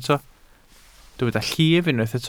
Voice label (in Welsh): "llif", Welsh